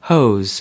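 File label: "hose", American English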